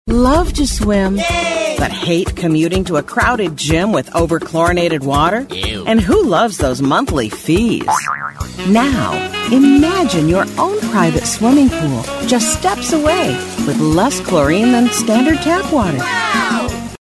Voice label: explainer lap pool